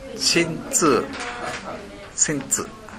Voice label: "sentu", minamiyamagata

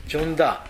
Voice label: "jonda", minamiyamagata

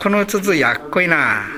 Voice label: "yakkoe", minamiyamagata; やわらかい。

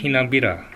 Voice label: "henabera", minamiyamagata

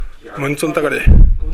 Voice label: "muntun", minamiyamagata

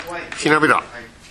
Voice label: "henabera", minamiyamagata

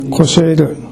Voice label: "kosheeru", minamiyamagata

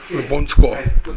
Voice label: "bontuko", minamiyamagata

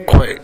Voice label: "kowae", minamiyamagata; 疲れる。体調不良。疲れて苦しい。

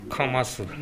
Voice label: "kamasu", minamiyamagata

